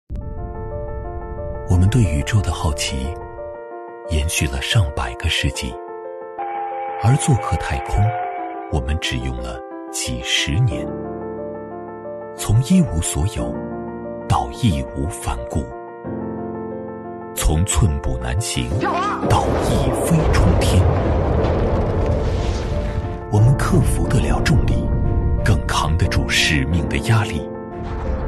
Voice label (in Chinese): 男 宣传片 航天